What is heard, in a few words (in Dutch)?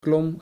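"klom", Dutch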